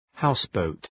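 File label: "houseboat", English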